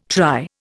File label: "try", Indian